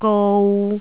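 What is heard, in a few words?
"gou3", Cantonese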